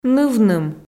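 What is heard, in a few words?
"нывным", Komi, female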